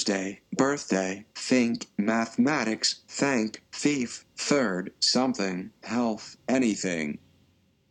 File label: th clear us